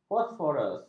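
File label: "fosforus", Malay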